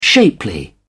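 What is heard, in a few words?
"shapely", British English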